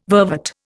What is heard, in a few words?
"vervet", Indian